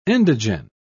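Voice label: "endogen", British English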